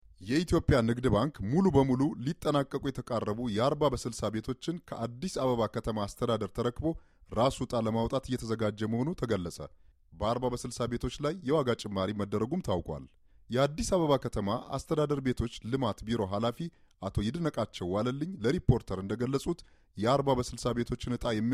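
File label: male voice Amharic language Ethiopian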